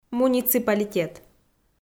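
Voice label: "муниципалитет", Komi, female; kpv audio dict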